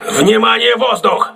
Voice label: voice message air alert, crew ships russian